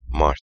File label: March in Turkish